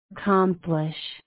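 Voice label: "accomplish", American, female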